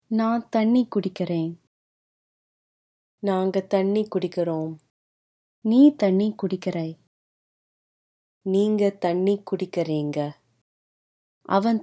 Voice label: Drink Water, Tamil